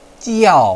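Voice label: "jiao4", Mandarin Chinese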